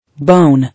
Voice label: "Bone", English

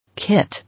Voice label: "Kit", English

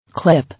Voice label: "Clip", English

